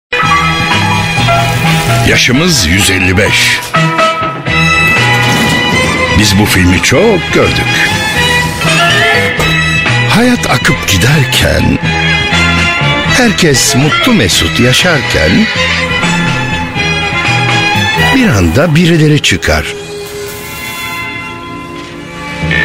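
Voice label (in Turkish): reklam, Turkish; ziraat bank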